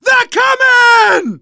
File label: incoming, manager, survivor, voice; Left 4 Dead 2